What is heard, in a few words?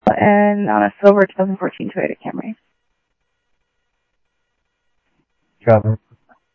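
Mesa police department, scanner audio trunk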